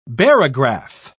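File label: "barograph", British English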